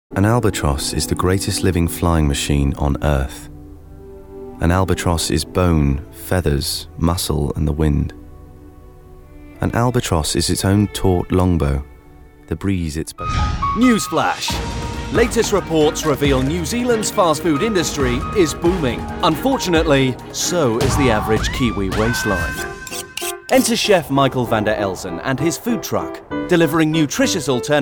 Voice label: narration